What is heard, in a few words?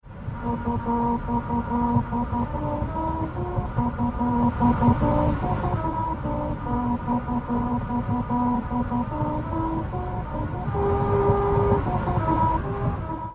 commercial; honda